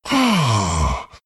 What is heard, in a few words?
happy; disruptor